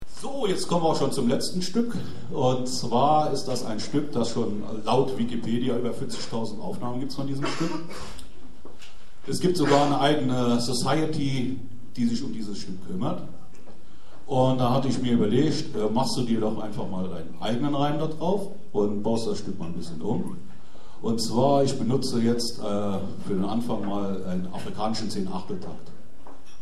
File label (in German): Ansage; Jazz-Club Trier e.V. (JCT); 15. Jazzgipfel (Tufa, Trier)